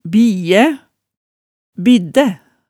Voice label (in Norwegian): "biæ, biddæ", hemsedals maalet tale